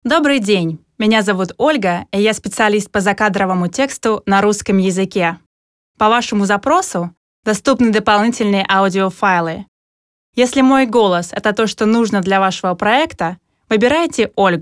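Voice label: Russian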